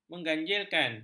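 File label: "mengganjilkan", Malay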